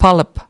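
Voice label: "polyp", English word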